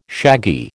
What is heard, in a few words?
"shaggy", American